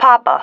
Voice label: "papa", female